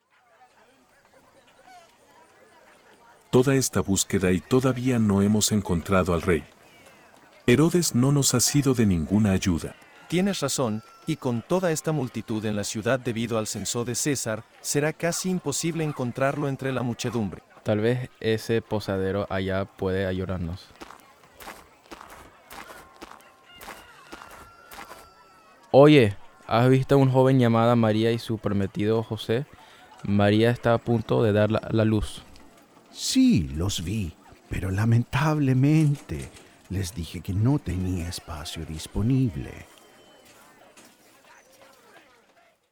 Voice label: Scene Spanish, nativity